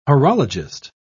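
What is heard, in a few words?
"horologist", British English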